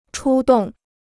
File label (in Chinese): "出动", Chinese